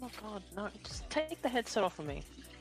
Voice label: dj annoyed

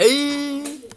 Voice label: hhhhheeeeeeeeyyyyyyyy

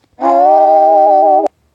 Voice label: noooo low harmony

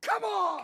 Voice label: narrator come on; kaguya-sama

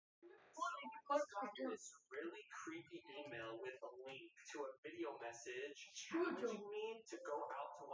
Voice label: danish classroom

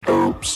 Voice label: robotic oops

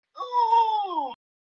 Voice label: donald duck uueaaah

